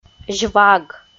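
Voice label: "зьваг", Komi, female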